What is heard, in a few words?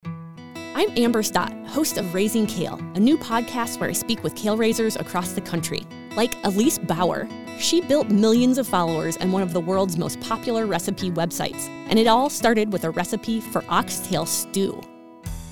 promo; raising kale